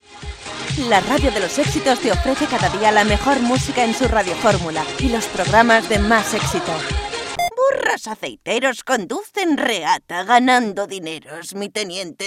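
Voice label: European Spanish, Commercial; GoLocalise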